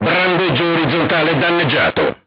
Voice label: horizontal aiming drive damaged, crew tanks italian; War Thunder